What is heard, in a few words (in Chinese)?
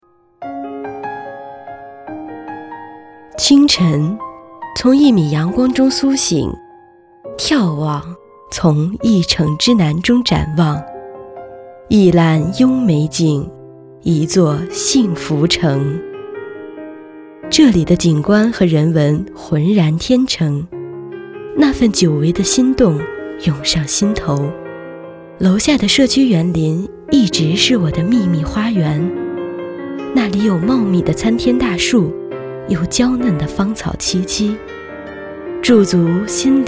女 广告, 童声; 阳光幸福城